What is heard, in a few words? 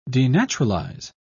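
"denaturalize", British English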